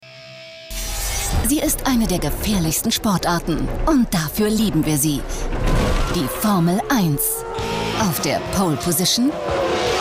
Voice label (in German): Doku; Pro 7; Michael Schumacher